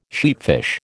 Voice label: "sheatfish", American